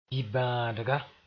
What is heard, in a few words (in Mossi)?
"bãadga", moore; unhealthy